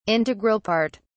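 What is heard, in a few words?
"integral part", British English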